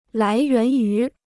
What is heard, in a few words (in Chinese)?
"来源于", Chinese